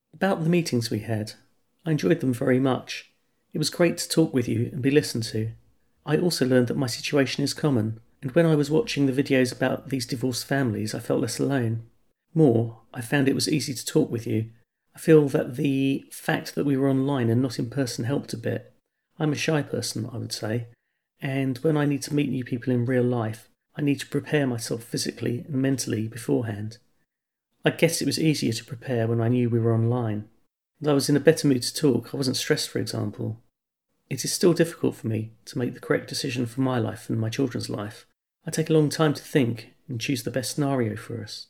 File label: English Quote